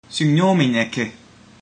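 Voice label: sorry, ancient greek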